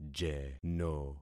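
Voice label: "jeno", concatenated syllables; Affixing experiment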